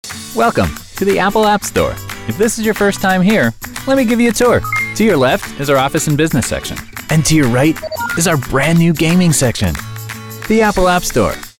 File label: Commercial; Apple App Store